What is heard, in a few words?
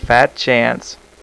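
"fat", male; Web Clip Empire 150,000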